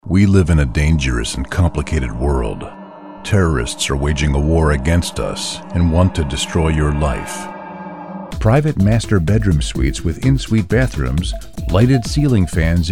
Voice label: Narration